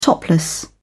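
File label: "topless", British English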